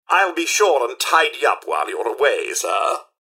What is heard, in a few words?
robots dialog goodbye; mrhandy; npc